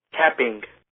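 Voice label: "capping" English, American accent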